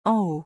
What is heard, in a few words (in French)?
"en haut" French; pronunciation; forbidden liaisons